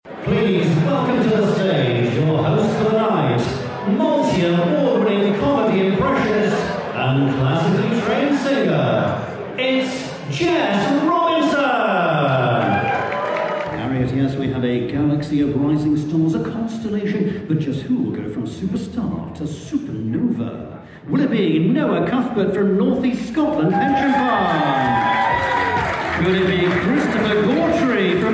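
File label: voice of god live event, British Believable Voice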